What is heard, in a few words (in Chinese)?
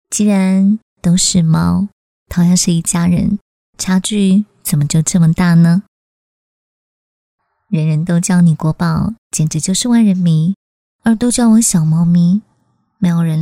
女; 模仿林志玲; 加密猫